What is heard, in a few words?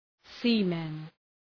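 "seamen", English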